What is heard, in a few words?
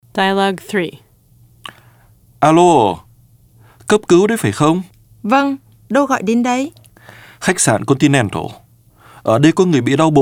Dialog